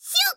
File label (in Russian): zvuki s golosom iaponskoi anime devushki